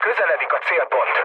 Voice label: near waypoint, mood low, speech hun; War Thunder